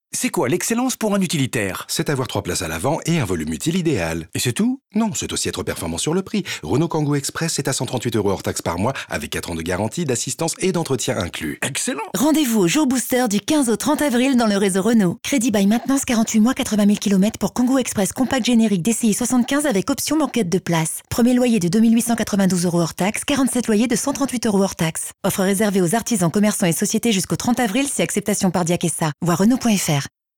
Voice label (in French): V. Off Tonique et M. Legale; Kangoo Express; Pub Radio; Voitures